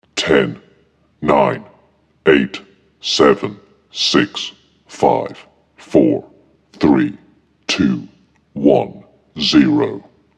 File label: Epic Male Countdown 10 To Zero; Talking Sound Effects; Human Voice Sound Effect